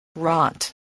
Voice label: "rot", English spelling